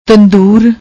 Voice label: "tandoor", Punjabi

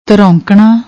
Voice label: "taronkana", Punjabi; ਤਰੌਂਕਣਾ

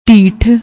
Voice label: "dhitt", Punjabi

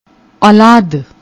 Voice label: "aollad", Punjabi

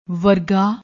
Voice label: "werga", Punjabi

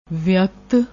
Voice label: "veakt", Punjabi; ਵਿਅਕਤ

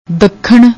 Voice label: "dakhan", Punjabi; ਦੱਖਣ

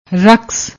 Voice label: "raks", Punjabi

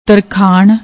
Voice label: "tarkhaan", Punjabi; ਤਰਖਾਣ

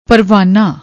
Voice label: "parvaana", Punjabi

